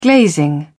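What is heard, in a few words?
"glazing", British English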